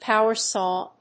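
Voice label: "power saw", English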